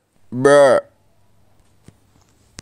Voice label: bruh acapella voice

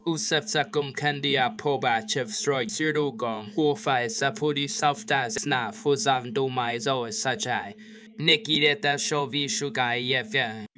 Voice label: guy speaking romanian

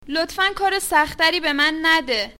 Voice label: peasant female; stronghold

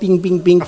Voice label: bing bing bing quiet; donaldtrump

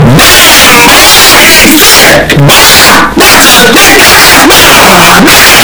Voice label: damn boy hyper distorted

